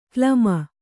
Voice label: "ಕ್ಲಮ", kannada